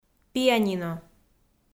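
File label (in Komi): "пианино", Komi, female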